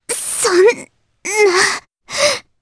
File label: Vox Dead, jp; Mirianne; kings raid